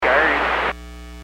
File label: Aviation 121.5 Emergency